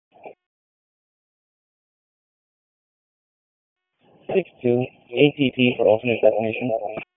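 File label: EMS Dispatch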